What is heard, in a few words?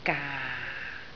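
"gaa4", Cantonese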